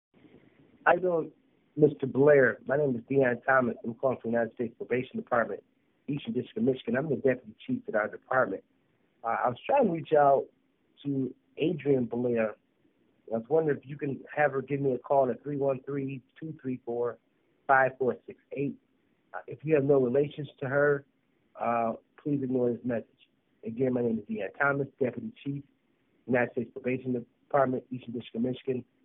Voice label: Voicemail; to EX husband